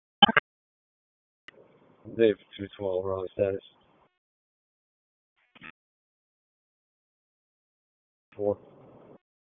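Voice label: Police District Dispatch; Raleigh Police Northeast District Disp; wake; p25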